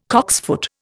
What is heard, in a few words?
"cocksfoot", Indian